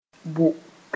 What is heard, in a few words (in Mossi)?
"bʋ", moore